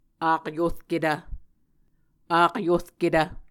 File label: "akyurketv", muscogee